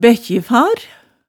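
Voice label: "bækkifar", Hemsedal dialect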